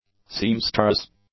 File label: "seamstress", English; nouns